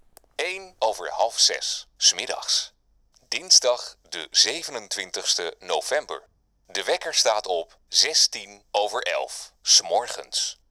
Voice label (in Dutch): Nl man